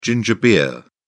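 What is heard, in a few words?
"ginger beer", British English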